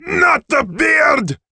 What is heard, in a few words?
dwarf Hero taunt death